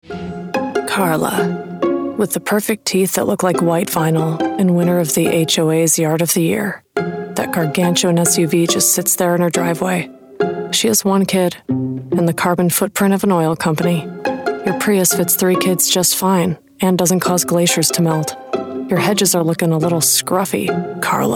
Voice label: COMMERCIAL; Prius